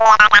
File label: Android talk